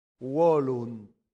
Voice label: "volunt", latin; santillana